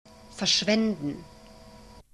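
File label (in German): "verschwenden", German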